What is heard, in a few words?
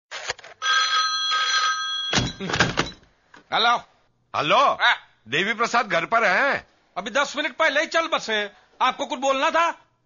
comedy; babu bhaiya